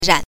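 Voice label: "ran", Mandarin Chinese syllable, neutral tone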